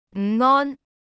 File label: Cantonese Good afternoon; Greetings